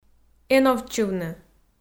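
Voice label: "эновтчывны", Komi, female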